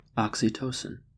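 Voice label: "oxytocin", American English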